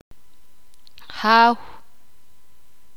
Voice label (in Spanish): "padre", sakapulteko